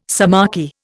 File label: "sciamachy", Indian